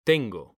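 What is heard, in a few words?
"tengo", Spanish, male; mekanta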